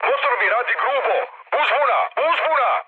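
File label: Serbian damaged engine mood high, speech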